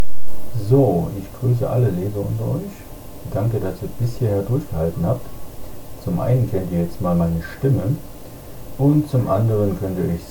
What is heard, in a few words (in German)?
Mikrofon MEG381CQR